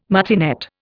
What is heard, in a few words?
"martinet", Indian